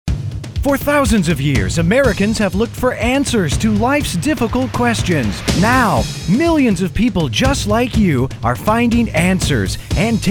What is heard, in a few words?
Commercial; Thor; Super CFL